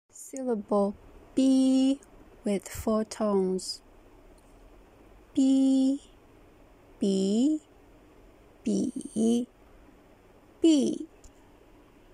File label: bi with 4 tones, Mandarin